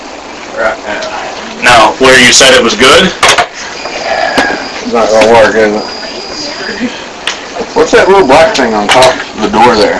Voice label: Daughters Bedroom Unexplained Drawn out (Yes) whisper AMPLIFIED